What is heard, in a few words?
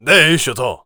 warrior conversation emotion excited